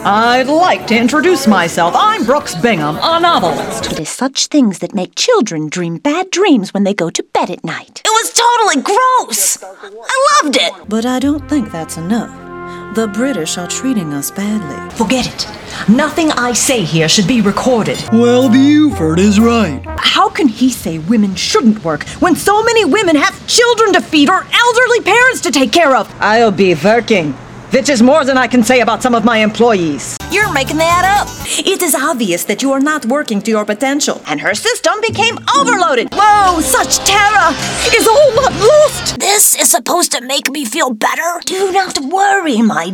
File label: 1min Character VO